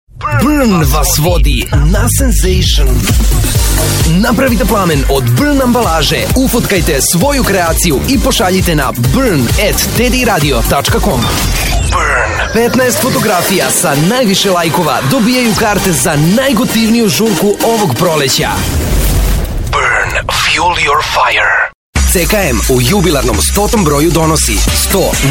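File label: serbian male commercial; voice demos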